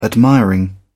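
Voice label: "admiring", British English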